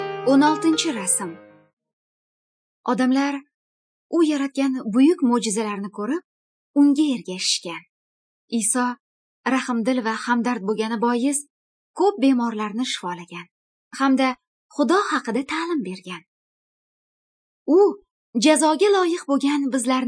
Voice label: good news female uzbek northern